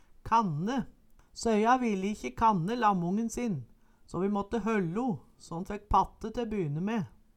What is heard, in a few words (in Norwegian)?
kanne, Numedalsmål